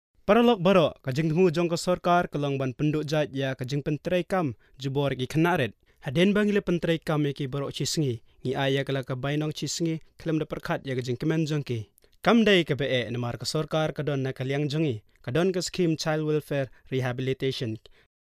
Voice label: khasi male; Professional male Khasi voice artist